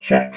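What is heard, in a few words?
"check", robot voice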